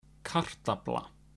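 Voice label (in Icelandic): "kartafla", Icelandic; matarhefti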